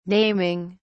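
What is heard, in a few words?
"naming", British English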